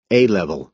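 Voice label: "a level", American English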